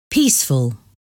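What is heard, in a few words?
"peaceful", English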